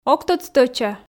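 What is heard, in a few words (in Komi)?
ог тӧд стӧча, Komi, female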